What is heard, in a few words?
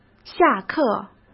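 "下课", Mandarin Chinese; chinese-flashcards; hsk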